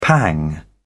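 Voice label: "pang", British English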